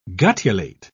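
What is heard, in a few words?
"guttulate", British English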